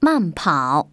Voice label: chinese run slow; samsung YP-U5; Audio User Interface